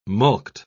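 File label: "mulct", British English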